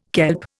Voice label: "kelp", Indian

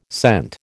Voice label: "cent", American

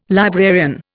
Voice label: "librarian", Indian

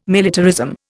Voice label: "militarism", Indian

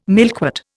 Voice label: "milkwort", Indian

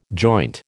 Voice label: "joint", American

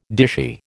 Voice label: "dishy", American

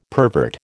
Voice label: "pervert", American

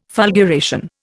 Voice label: "fulguration", Indian

